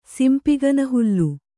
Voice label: "ಸಿಂಪಿಗನಹುಲ್ಲು", Kannada

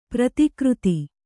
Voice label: "ಪ್ರತಿಕೃತ", Kannada

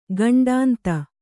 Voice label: "ಖಂಡೇಂದು", Kannada

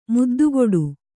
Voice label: "ಮುದ್ದುಕೊಡು", Kannada

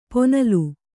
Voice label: "ಪೊನಲು", kannada

